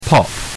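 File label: "pop", British English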